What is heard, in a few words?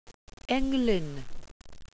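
"englyn", welsh pronunciations; Wales/welsh names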